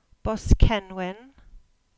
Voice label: "Boskenwyn" Cornish